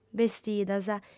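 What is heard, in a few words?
"bestidas", lingua sarda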